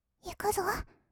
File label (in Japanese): "いくぞ", kawaii voice; ASMR; 色々なワンショット